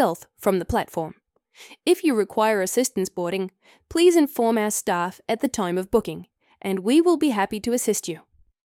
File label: Station map audio description